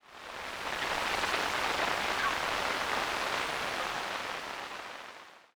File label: Radio; zps; Humans; Radio Emit